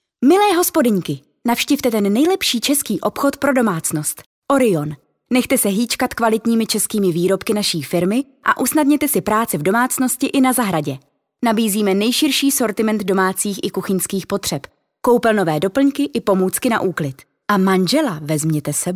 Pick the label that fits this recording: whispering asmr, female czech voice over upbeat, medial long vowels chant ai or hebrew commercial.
female czech voice over upbeat